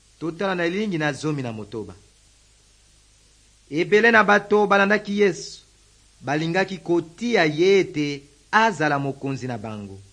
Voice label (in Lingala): good news lingala west, drama